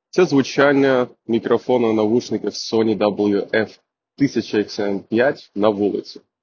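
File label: sony wf 1000xm5 ua street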